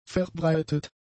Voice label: "verbreitet", German; adjectives

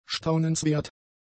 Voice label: "staunenswert", German; adjectives

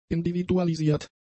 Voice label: "individualisiert", German; adjectives

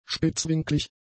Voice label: "spitzwinklig", german; adjectives

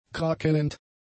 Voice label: "krakellend", German; adjectives

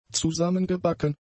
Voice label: "zusammengebacken", German; adjectives

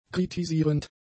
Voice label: "kritisierend", German; adjectives

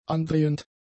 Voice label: "andrehend", German; adjectives

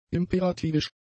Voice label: "imperativisch", German; adjectives

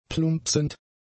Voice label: "plumpsend", German; adjectives

